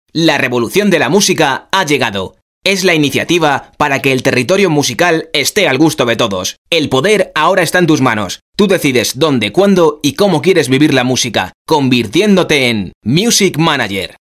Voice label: spanish e-learning